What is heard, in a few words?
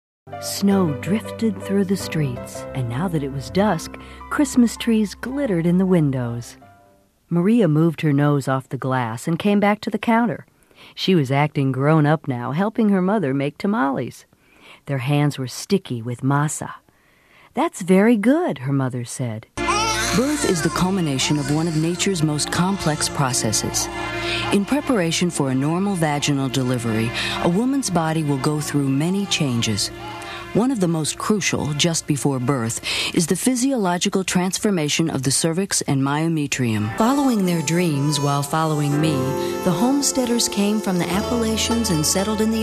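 narration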